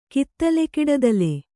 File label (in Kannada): "ಕಿತ್ತಲೆಕಿಡದಲೆ", Kannada